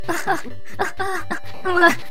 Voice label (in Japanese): ダメージボイス; 悪魔城ドラキュラ(FC版)